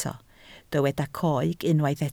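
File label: "coeg" Welsh, spoken word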